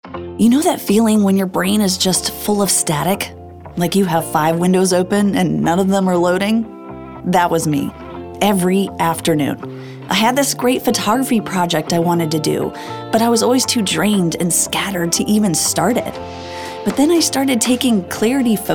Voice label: anti-announcer, conversational, friendly, genuine, promo, raspy; Clarity Focus Drops